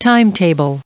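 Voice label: "timetable", American English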